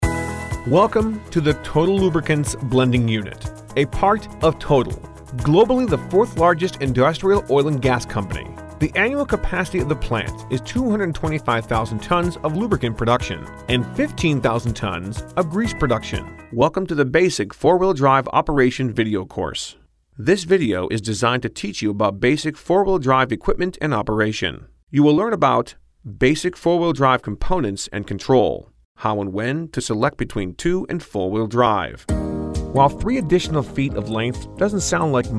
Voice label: Corporate Narration

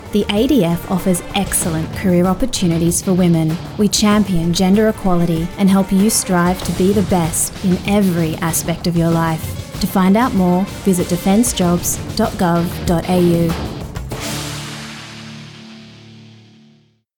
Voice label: DEFENCE Radio Ad; NSW

